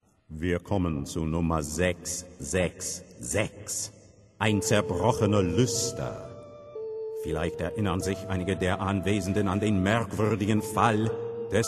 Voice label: Auction, english; Auktionator